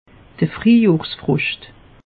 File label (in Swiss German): "friehjohr", alsace; meteo